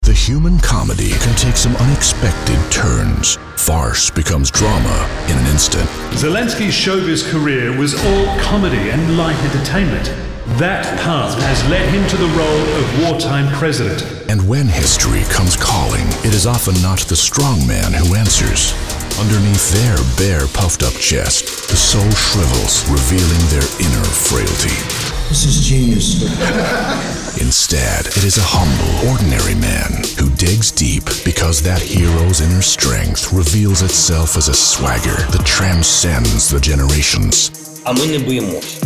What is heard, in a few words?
US Promo; The Bulwark